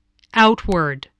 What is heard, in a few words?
"outward", English voice